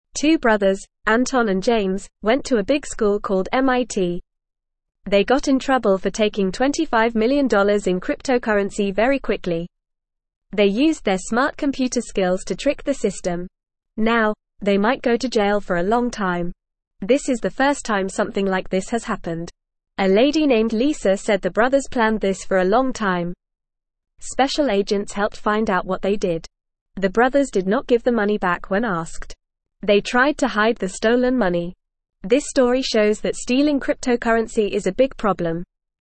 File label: English FAST Reading; Brothers steal lots of money from computer